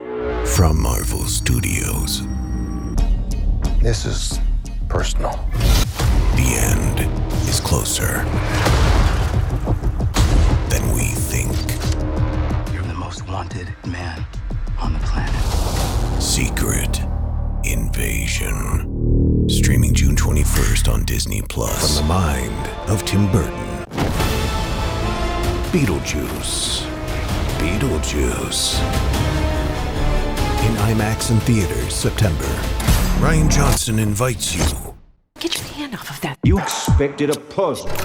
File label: Trailer